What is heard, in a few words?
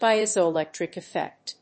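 "piezoelectric effect", English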